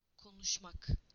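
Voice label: "to speak", Turkish; PictureDictionary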